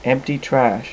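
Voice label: "empty", male, voice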